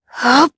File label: Vox Casting jp; Tanya; kingsraid audio